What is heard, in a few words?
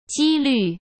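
"几率", Mandarin Chinese; chinese-flashcards; hsk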